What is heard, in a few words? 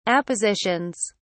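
"appositions", British English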